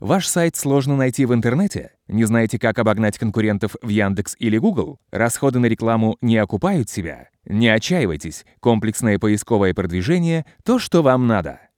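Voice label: zg presentation, Russian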